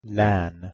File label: "laen", Anglo Saxon